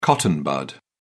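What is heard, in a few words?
"cotton bud", British English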